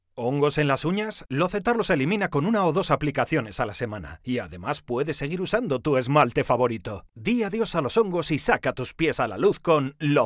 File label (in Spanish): PUBLICIDAD